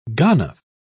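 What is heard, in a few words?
"ganef", English, British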